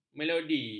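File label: "melodi", Malay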